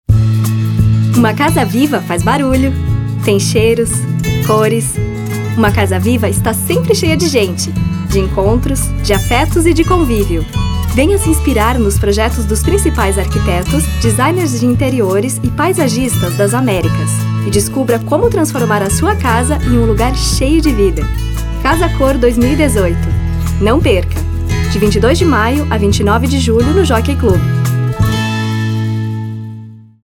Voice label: Spot; Casa Cor